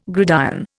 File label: "gridiron", Indian